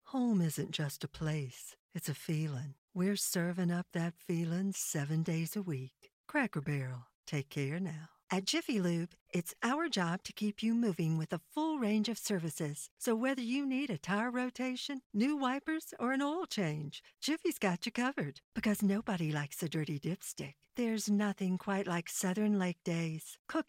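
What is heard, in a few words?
English US, commercial; GoLocalise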